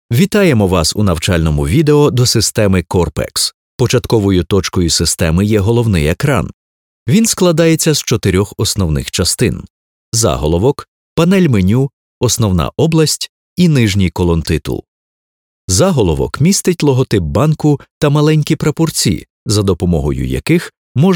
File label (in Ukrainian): demo ukrainski